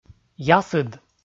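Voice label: "ясыд", Komi, male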